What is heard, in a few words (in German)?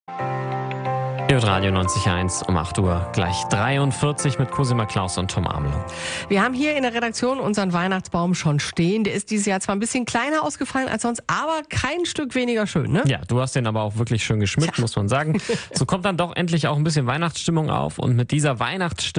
Mitschnitt Gedicht; Martinshof